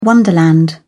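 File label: "wonderland", British English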